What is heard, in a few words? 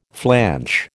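"flanch", American English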